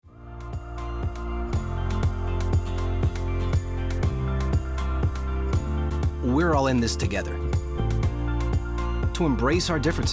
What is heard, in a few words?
English